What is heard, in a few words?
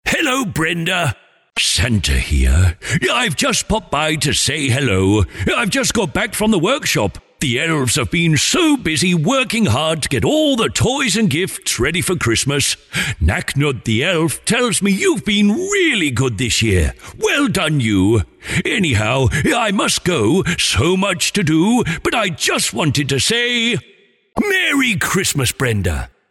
Personalised Santa Message, personalised Christmas message